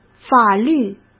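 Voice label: "法律", Mandarin Chinese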